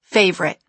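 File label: "favourite", American English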